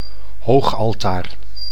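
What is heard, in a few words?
"hoogaltaar", Dutch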